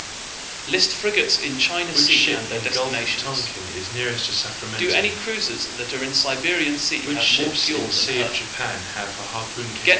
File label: mixture roomB SNR10 azim15 right